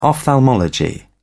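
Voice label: "ophthalmology", British English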